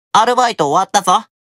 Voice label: Job Notification Voice; Luke